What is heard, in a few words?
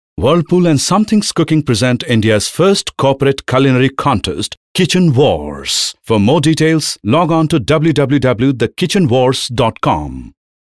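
English male voice over; Wirlpool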